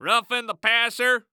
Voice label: Sports Human Voice Male Football Referee; Roughing The Passer; AdobeCC